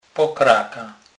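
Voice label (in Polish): "pokraka", Kurpie dialect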